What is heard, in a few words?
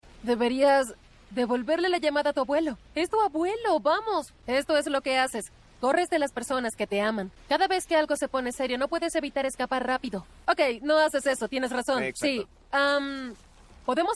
spanish latin american impersonations